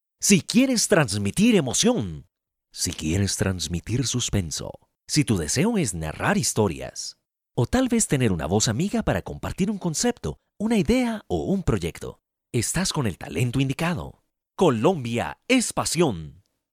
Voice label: Spanish Latin